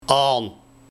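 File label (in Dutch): "Aon", dialect; Aan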